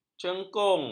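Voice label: "cengkung", Malay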